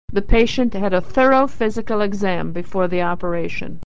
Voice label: thorough, American English; Consonant Sound; The patient had a thorough physical exam before the operation.